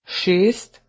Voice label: "6", Slovenian